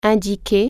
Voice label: indicate, french